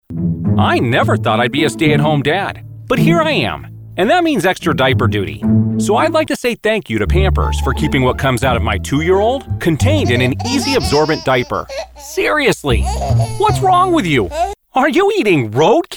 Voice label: COMMERCIAL; American